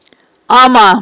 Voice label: "амма", Aghul

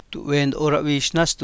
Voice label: "rtomó", copalatriqui

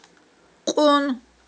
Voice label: "къун", Aghul

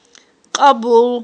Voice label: "кьабул", Aghul

